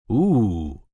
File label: "Ooo", male, reaction, positive; Exclamation; Voice Box